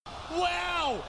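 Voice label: "waow", spoken word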